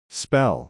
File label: "spell", English